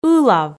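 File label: "ылав", Komi, female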